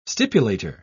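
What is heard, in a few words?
"stipulator", English, British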